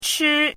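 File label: "chi" first tone, pinyin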